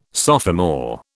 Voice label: "sophomore", British